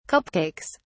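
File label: "cupcakes", British English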